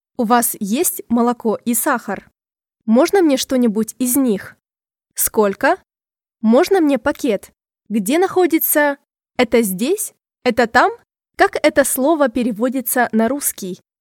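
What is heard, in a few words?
Russian Voice Over Energetic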